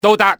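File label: position reached, enemy cantonese male; XBOX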